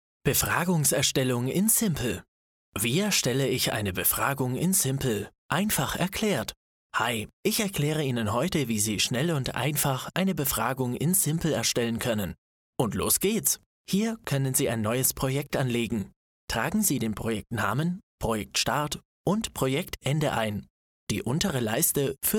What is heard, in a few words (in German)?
E Learning; Anwendung - SIMPL